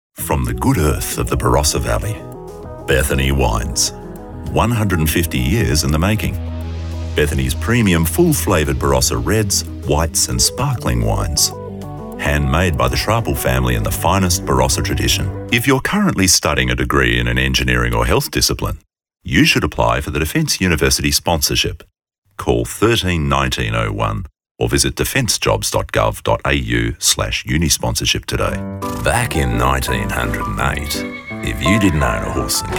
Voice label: Voice Over